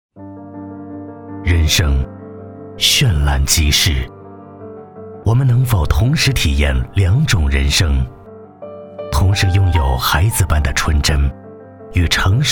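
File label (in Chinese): 男国大音-产品宣传片; 保时捷 模仿 钟有道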